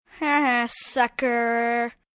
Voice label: "Haha Sucker", Attitude, 1 boy, 11 yrs